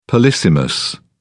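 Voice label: "polysemous", British English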